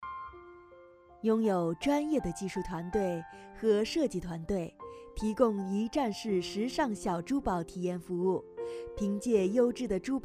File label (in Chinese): 广告成女